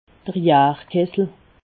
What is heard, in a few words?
"jarkessel", alsace